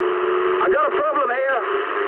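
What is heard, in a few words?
English aircraft damaged mood med; War Thunder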